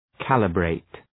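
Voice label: "calibrate", English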